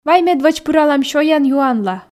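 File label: "вай медводз пыралам сёян-юанла", Komi, female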